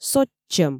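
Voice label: "сотчӧм", Komi, female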